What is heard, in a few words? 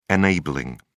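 "enabling", British English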